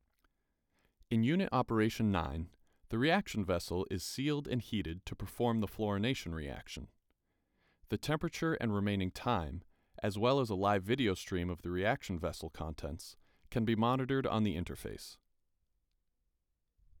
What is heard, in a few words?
Voiceover